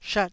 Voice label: "shat4", taiwanese

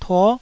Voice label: "tuo9", taiwanese

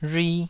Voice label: "rhui2", taiwanese

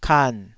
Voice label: "kan3", taiwanese syllable

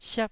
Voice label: "siap4", Taiwanese

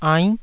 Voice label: "ainn9", taiwanese syllables; 台語音節發音檔案

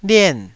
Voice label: "lien4", Taiwanese

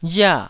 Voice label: "ria4", taiwanese

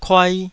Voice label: "kuai2", taiwanese syllables

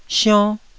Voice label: "sionn1", Taiwanese syllable

